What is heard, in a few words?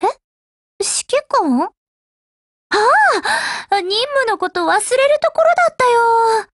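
HELLO Japanese, Voice; Lewis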